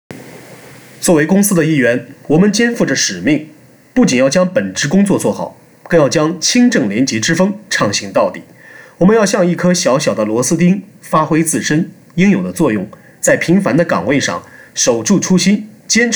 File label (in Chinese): 报告演讲